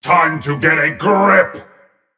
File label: Male Mech Play